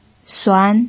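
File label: "suan", Mandarin Chinese pinyin, second tone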